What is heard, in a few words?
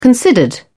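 "considered", English, British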